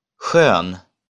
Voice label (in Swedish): "skön", Swedish